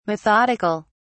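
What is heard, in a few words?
"methodic(al)", English, British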